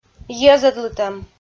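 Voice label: "йӧзӧдлытӧм" Komi, female; audio dict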